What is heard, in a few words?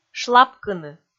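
"шлапкыны", Komi, female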